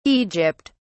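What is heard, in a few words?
"Egypt", British English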